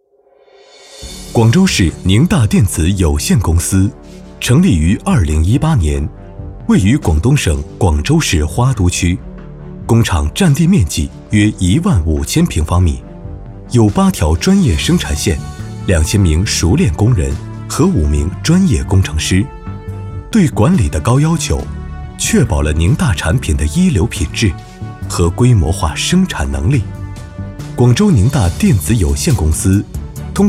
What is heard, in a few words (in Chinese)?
企业宣传